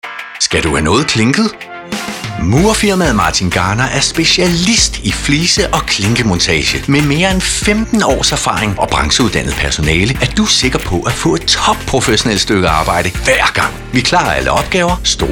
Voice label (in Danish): Reklame Munter og power